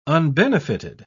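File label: "unbenefited", British English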